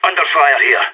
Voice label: pinned down, radio; SAS

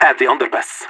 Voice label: underpass, radio; LEET

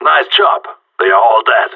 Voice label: no enemies left, radio; GSG9

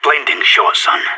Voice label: good shooting, radio; SAS

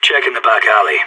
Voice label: checking back alley, radio; SAS

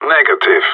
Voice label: negative, radio; GSG9

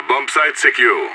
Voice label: bombsite clear, radio; SAS

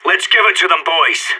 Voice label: pep talk, radio; SAS

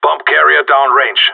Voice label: bomber spotted, radio; GSG9

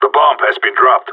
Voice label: spotted loose bomb, radio; GSG9

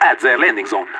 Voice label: ct spawn, radio; LEET

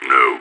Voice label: negative, radio; SAS

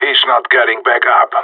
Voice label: enemy down, radio; GSG9

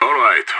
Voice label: affirmative, radio; IDF

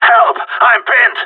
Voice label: need help, radio; GSG9

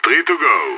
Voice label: three enemies left, radio; SAS

